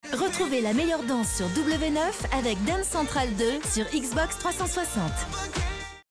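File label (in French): Billboard TV Djeunes, voix off; Xbox; loisirs jeux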